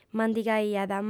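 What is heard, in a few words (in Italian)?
"mandigaiat", lingua sarda, fem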